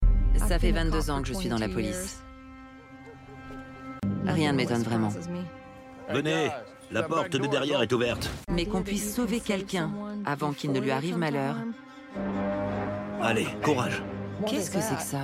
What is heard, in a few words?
voice over; Disparition